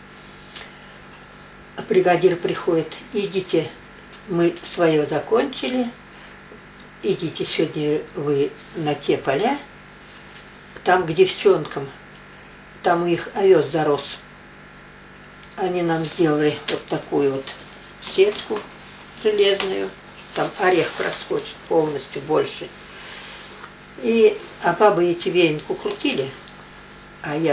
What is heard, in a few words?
middle ob dialect; плица